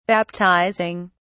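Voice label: "baptizing", British English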